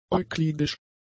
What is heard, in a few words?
"euklidisch", German; adjectives